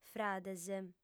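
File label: "frades", female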